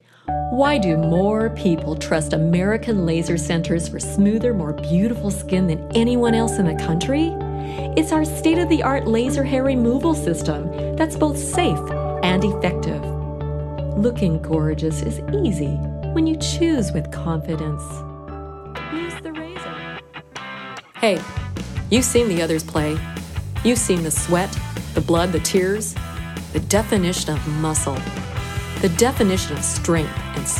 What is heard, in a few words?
Commercial, Female voices